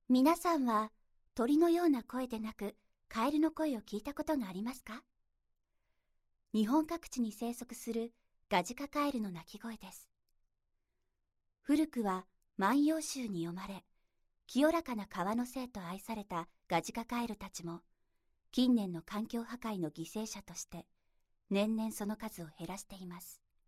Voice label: narration